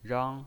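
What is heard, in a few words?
"rang", Mandarin Chinese, first tone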